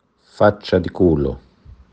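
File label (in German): "faccia di culo", italienisch; Arschgesicht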